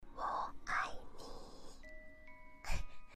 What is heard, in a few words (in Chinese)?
asmr我爱你